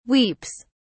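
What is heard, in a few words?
"weeps", British English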